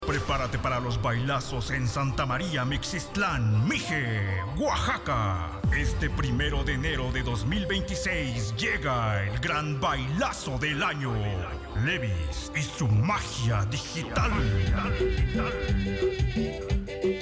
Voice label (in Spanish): grupero mezcla, Demo comerciales